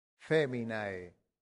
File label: "feminae", Latin; santillana